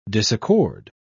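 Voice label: "disaccord", English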